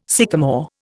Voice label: "sycamore", Indian